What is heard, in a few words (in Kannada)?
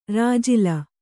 "ರಾಜಿಲ", Kannada; rājila; noun